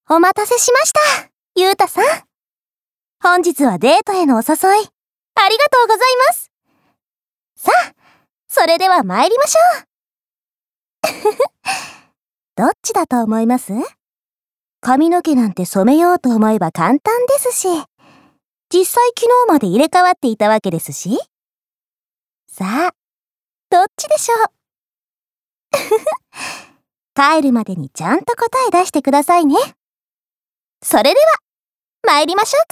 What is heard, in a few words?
female voice